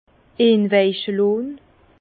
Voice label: "inwaiche", Bas Rhin; vin; ramollir par trempage

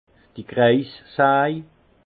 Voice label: "kreissaj", alsace; vin; scie circulaire

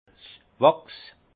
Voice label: "wachs", alsace; encaustique; vin

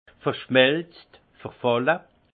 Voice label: "verschmelzt", alsace; fondu; vin

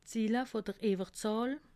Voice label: "zille", alsace

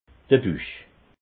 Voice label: "buch", alsace

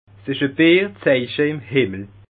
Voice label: "bierzeiche", Bas Rhin

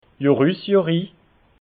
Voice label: "johruss", alsace

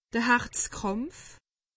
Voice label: "herzkrampf", alsace; medecine

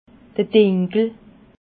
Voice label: "dinkel", alsace

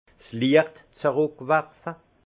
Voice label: "liechtzruckwerfe", alsace; vin; renvoyer la lumière

